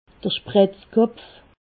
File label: "spritzkopf", alsace